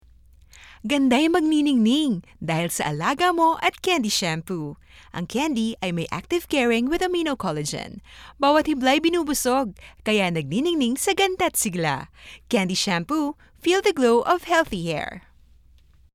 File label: Taglish (Selling, Promo)